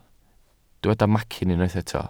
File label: "macyn", welsh